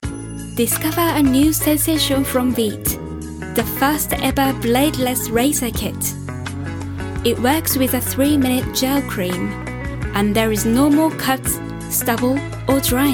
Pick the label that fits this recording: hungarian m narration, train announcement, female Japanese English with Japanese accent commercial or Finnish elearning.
female Japanese English with Japanese accent commercial